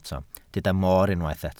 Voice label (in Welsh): "moor", Welsh